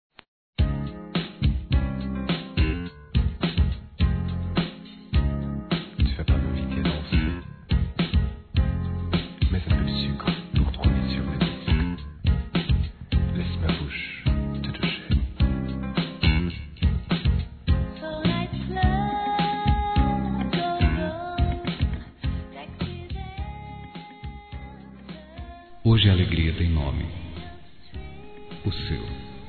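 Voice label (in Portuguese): Masculino, Telemensagens Aniversários; ANIVERSÁRIO DE AMIGO; Telemensagens 2017; Aniv Familiar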